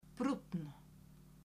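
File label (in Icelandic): "brúnn", Icelandic, female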